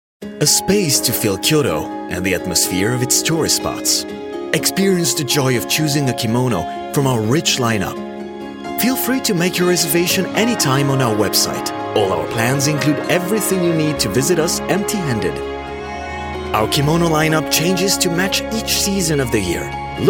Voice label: informative en